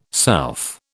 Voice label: "south", British